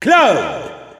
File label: French Announcer; Cloud; SSBU